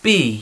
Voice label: "bee", English; zvirata v lese